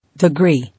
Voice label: "Degree", English